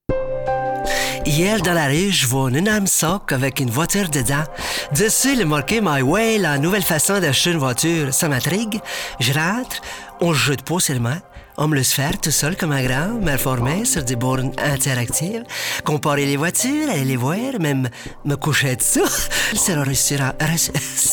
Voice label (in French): accent canadien